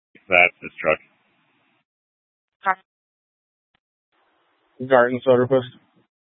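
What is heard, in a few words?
Police Dispatch, Scanner audio recording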